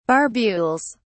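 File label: "barbules", British English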